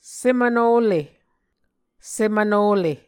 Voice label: "semvnole", Muscogee